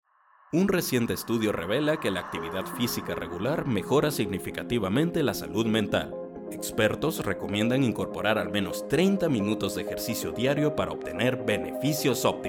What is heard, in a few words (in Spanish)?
Informativa musicalizado, locutor